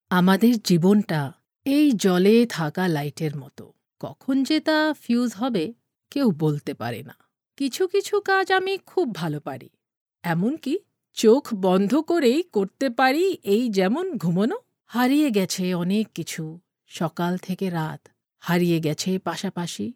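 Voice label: Bengali, Bangladesh, female; voice over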